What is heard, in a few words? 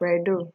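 "credo", Nigerian Pidgin, female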